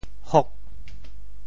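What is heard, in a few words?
"hok8", Teochew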